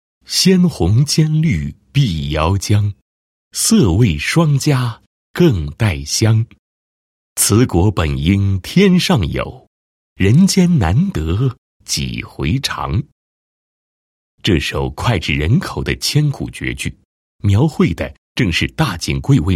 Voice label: 男 产品介绍 荔枝宣传介绍; 大井桂味荔枝

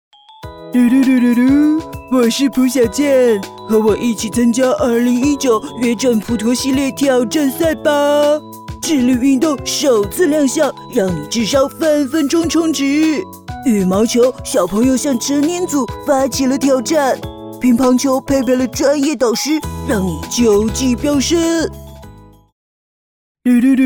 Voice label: 女 模仿 男童; 蜡笔小新

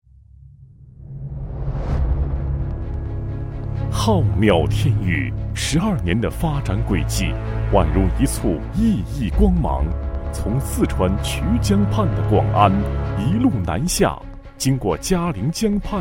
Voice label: 男 专题 电力公司宣传, 国语男声配音

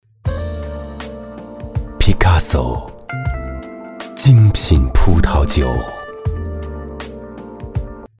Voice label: 男, 广告, 磁性魅惑, 葡萄酒; 毕加索葡萄酒

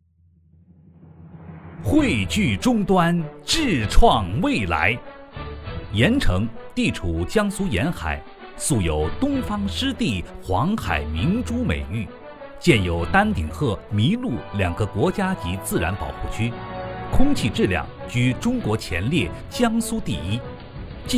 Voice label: 男 城市宣传 积极奋进

